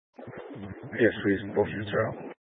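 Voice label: Sheriff Dispatch, Scanner audio recording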